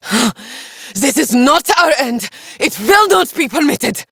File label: Villagers Fem en